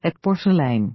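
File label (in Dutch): "het porselein", Dutch; Natuur samenvatting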